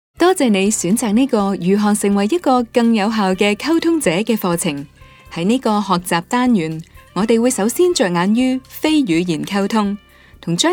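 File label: Cantonese Narration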